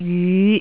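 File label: "jyu5", Cantonese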